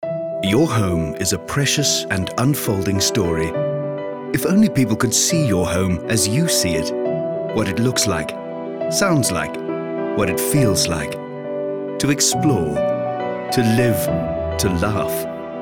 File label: Radio Advert; Fineandcountry